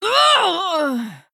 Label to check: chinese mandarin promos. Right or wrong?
Wrong